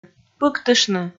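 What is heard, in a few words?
"пыктыштны", Komi, female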